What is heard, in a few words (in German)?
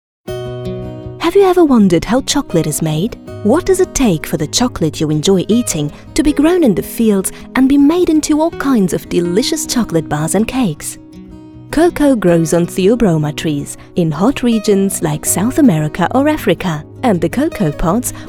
Englisch; voix générées par l’intelligence artificielle